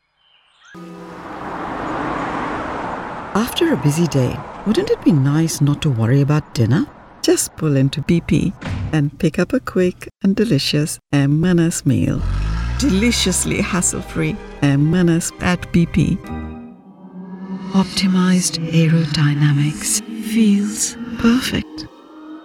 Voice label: friendly sexy health professional; Lexus

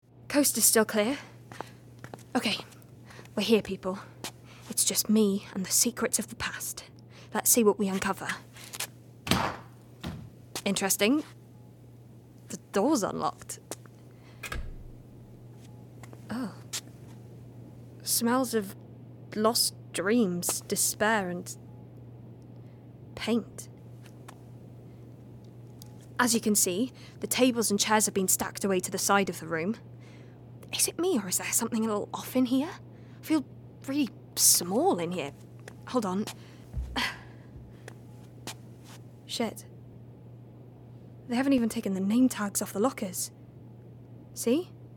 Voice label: Drama

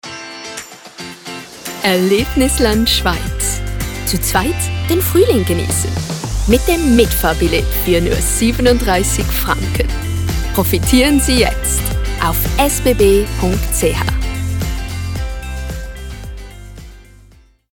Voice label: German

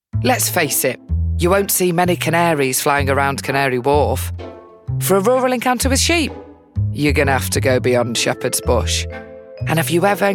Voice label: commercials

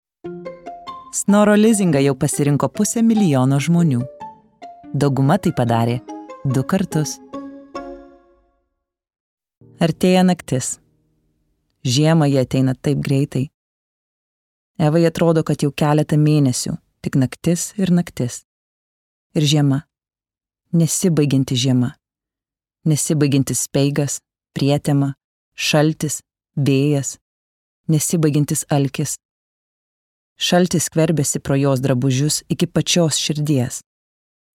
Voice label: Lithuanian

